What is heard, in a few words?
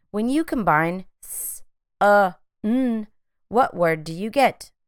s ŭ n phonemic blending